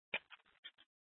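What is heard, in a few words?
Police Department North Dispatch, scanner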